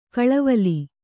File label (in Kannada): "ಖಲೇವಾಲಿ", Kannada